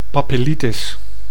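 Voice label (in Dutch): "papillitis", Dutch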